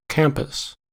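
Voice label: "campus" English, American accent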